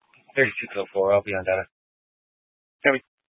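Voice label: Police Dispatch, Scanner audio recording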